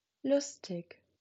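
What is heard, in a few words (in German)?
"lustig", German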